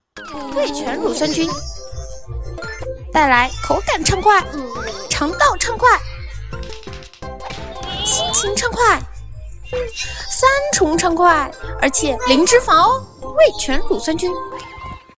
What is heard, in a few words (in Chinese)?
广告